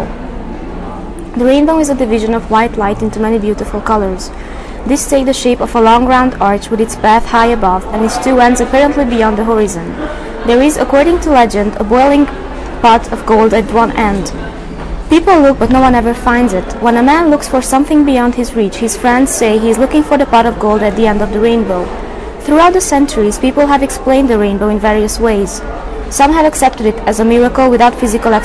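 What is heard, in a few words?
romania, International Dialects of English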